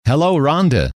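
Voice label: hello, male; trainer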